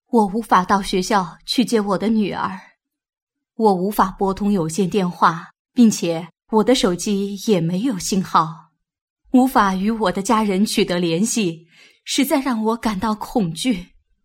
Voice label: locutora china chinese voice over